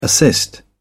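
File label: "assist", British English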